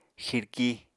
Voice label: "xirki", Apatani; Material Culture